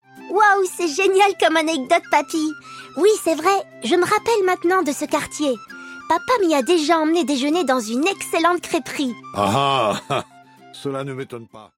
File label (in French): Voix petite fille Audioguide; HavreCityTour